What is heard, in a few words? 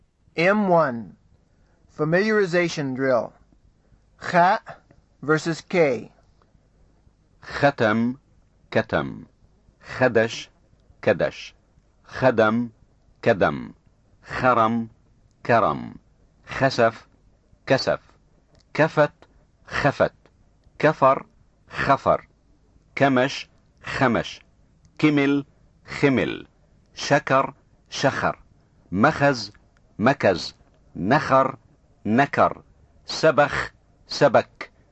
Levantine Arabic Pronunciation; FSI